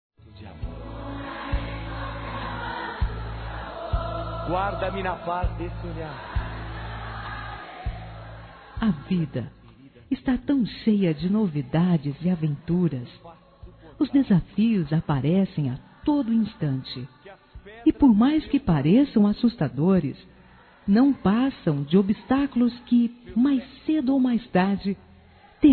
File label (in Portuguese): ENCONTRO DE JOVENS, Gospel, fem; Telemensagens; Telemensagens 2017